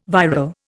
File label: "viral", Indian